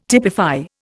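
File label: "typify", Indian English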